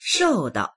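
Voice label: "shou4dao", Mandarin Chinese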